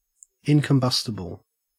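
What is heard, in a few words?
"incombustible", Australian English; pronunciations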